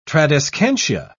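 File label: "tradescantia", British English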